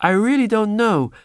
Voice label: "i really don't know", male; Voice

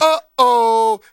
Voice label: "uh oh", Voice

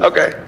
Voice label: "okay", expletives

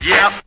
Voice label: "yup", SCRATCH

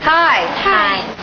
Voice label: hi hi, female; Voice; expletives

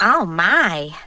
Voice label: "oh my" female; expletives

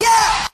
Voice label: "yeah" airy, voice

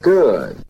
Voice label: "good", Voice; expletives

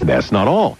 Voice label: announcer; but that's not all

